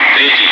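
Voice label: Russian calm; Wingman; DCS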